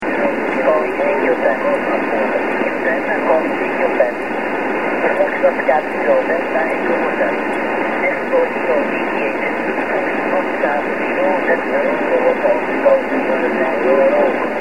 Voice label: 28 ssb